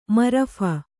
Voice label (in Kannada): "ಮರಫ", Kannada